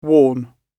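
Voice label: "worn", British English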